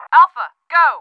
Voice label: English Go A